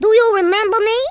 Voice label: hello, Blues Man speech